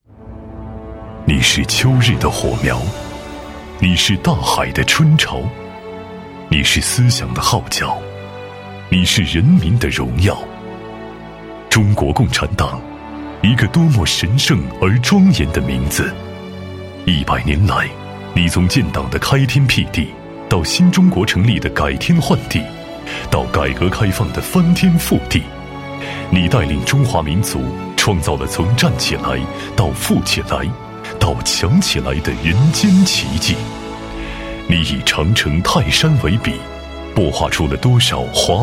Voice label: 男 党建专题 党史学习 抒情走心; 磁性品质; 高端大气; 男98-党建专题【党史学习-抒情走心】

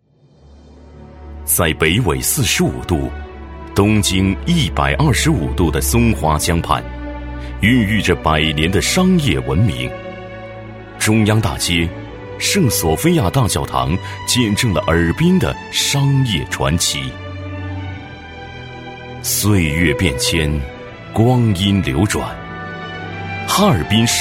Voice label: 男 学校宣传; 高端大气; 沉稳大气; 哈尔滨商业大学经济学院; 男B12-高端大气 大气浑厚

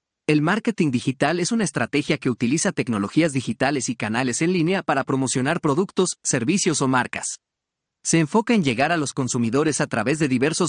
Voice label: 西班牙男声

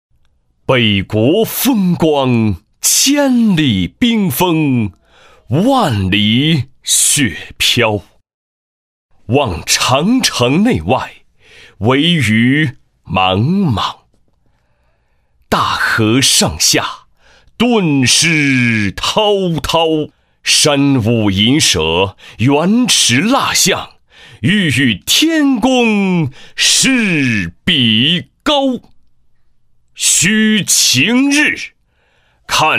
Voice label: 男 朗诵配音 气势磅礴; 沁园春

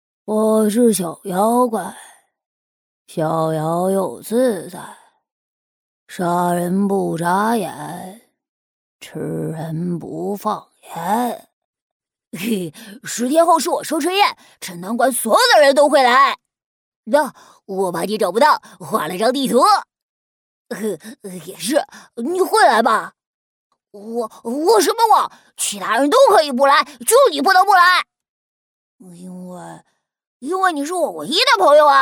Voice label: 女 模仿; 《哪吒之魔童降世》

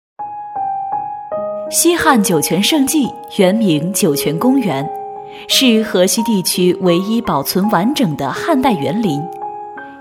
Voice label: 女-景区宣传片 酒泉公园-柔和讲解; 端庄大气; 成熟知性

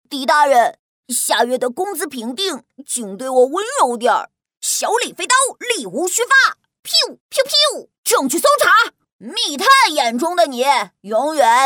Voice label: 女 模仿; 王者荣耀-李元芳

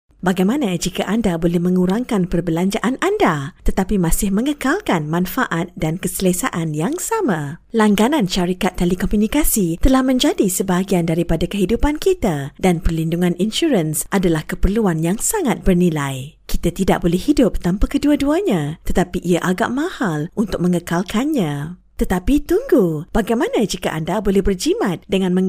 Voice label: 马来语女声

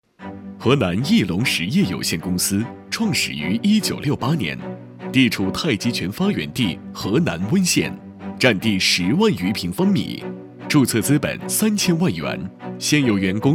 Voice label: 男 专题企宣 浑厚稳重

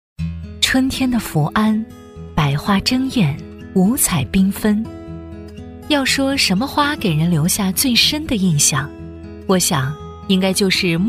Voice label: 女, 旅游景点宣传, 温馨甜美; 舒缓抒情; 畲乡桃花

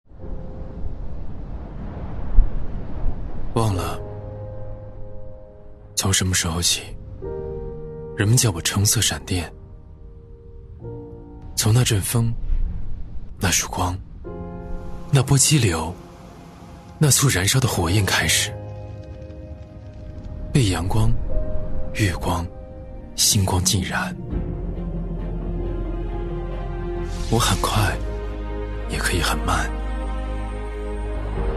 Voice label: 男 宣传片 磁性高端; 国家电投; 踢不烂

